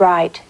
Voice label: "right" female